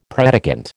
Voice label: "predicant", American

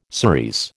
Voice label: "cerise", American

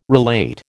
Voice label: "relate", American

